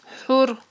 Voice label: "хIур", Aghul